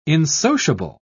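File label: "insociable", British English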